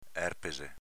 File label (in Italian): "erpese", dialetto veneto; s.m. erpice